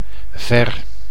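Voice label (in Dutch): "ver", Dutch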